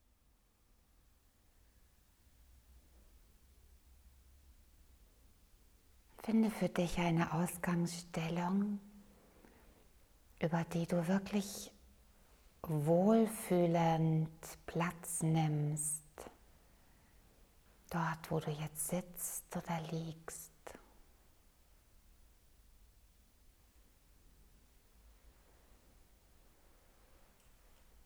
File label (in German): Basischakra Meditation